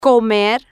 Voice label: "comer", Spanish